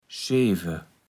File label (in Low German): "Scheve", platt